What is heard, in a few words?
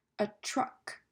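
"A truck", English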